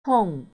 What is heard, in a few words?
pain mandarin